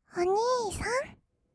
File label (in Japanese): お兄さん, kawaii voice; ASMR; 二人称